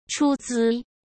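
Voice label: "出资", Mandarin Chinese; chinese flashcards; hsk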